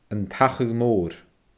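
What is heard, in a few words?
"An t-Achadh Mòr", Scottish Gaelic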